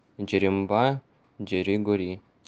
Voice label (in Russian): Давайте споем, нанайский